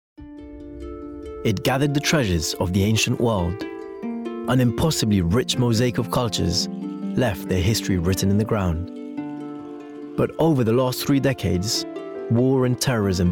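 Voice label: documentary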